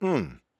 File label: Emote Positive